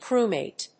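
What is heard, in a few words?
"crewmate", English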